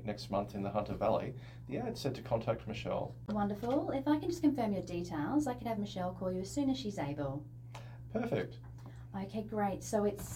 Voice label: message taking answering service; Vodafone Business Starter